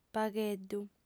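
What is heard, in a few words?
"pagheddu" Sardinian, female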